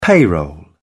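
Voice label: "payroll", British English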